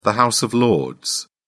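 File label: "house of lords", British English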